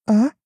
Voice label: doubt